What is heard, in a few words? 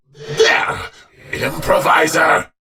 Enemy Spot, Barks Battle; Warframe; Dax; Dax Knight